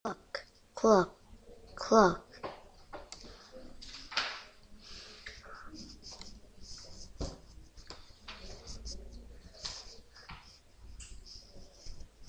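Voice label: "clock", spoken word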